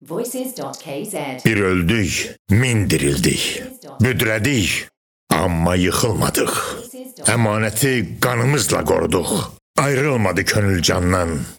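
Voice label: Voice Azerbaijani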